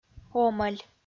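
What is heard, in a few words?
"омӧль" Komi-Zyrian, female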